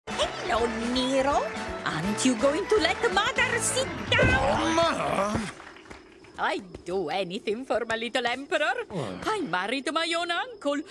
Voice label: Female comical; YouTube